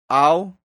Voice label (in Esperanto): "auh", esperanto; leciono1